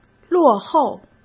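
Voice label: "落后", Mandarin Chinese; hsk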